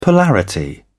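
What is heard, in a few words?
"polarity", British English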